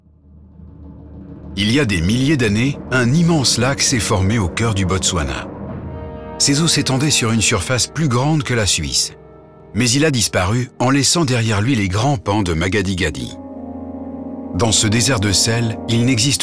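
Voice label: documentary vo medium smooth; documentairy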